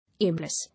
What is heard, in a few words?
"Aimless", English